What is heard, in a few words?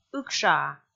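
"ыкшаа", Komi, female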